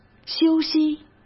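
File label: "休息", Mandarin Chinese; chinese-flashcards; hsk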